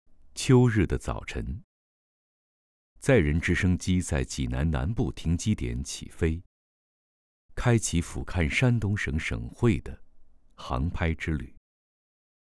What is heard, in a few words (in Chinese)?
纪录片 人文历史 纪录片配音; 济南